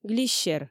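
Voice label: "глиссер", Komi, female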